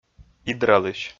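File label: "идралысь", Komi-Zyrian, male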